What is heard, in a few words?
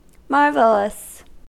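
"marvelous", US English; pronunciations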